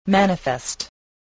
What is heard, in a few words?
"manifest", spelling voice